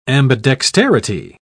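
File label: "ambidexterity", English, British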